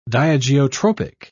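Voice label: "diageotropic", British English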